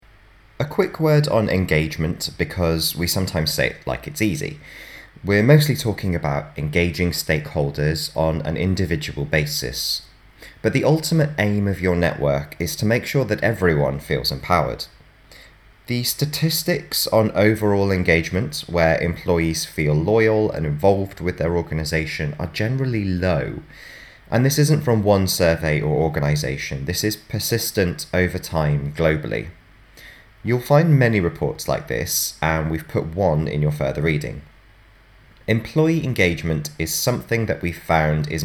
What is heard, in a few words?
Narration; Radius